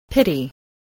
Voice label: "pity", English